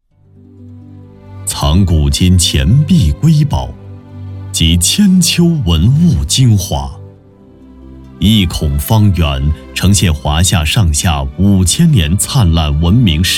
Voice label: A男 专题片历史类; 博物馆

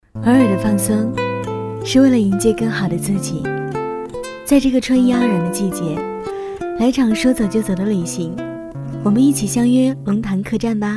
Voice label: 女, 素人; 偶尔的放松

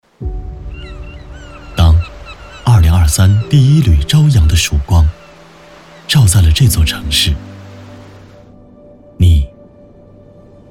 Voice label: 男 走心旁白; 遇见美好-一路同行

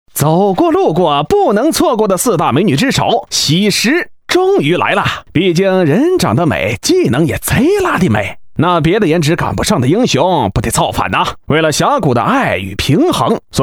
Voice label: 男 游戏解说; 一招即浪

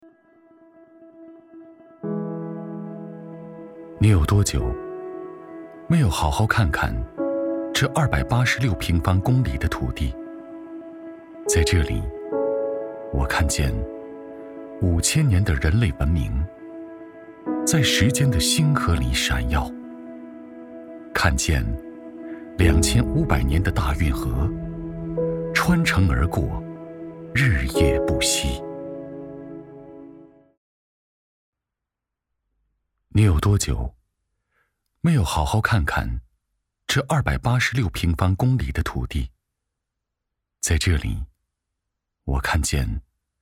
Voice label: 走心旁白 温暖, 男

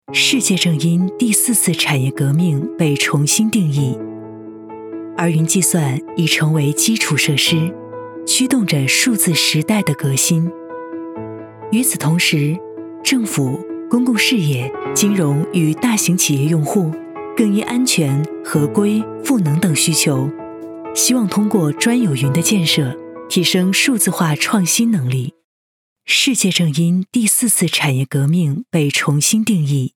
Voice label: 女 宣传片 科技 大气

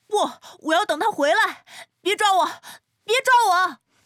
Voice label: A女 角色 10 14岁男孩

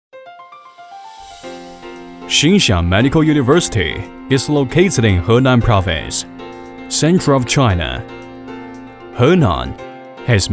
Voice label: W男 英语 大气专题; Xinxiang Medical University

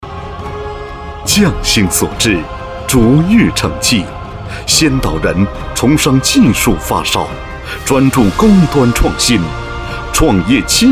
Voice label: 男 宣传片 先导形象宣传片

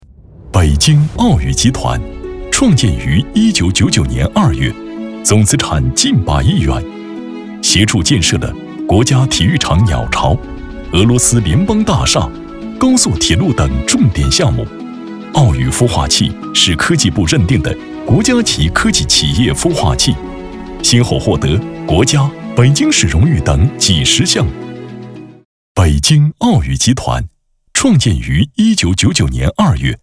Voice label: A男 科技宣传片 科技 大气; 《北京奥宇集团》

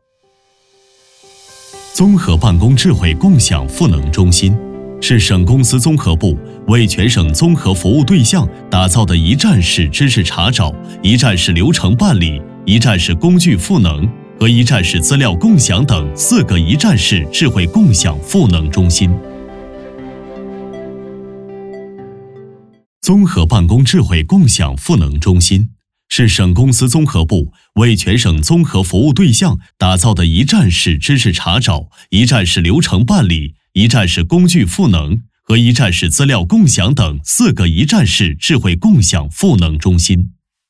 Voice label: 男; 飞碟说MG; 智慧共享

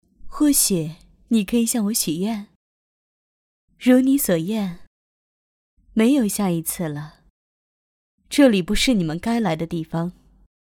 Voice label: A女 游戏角色 温柔

